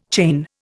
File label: "chain", Indian